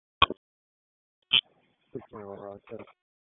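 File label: Police Southwest District Dispatch, p25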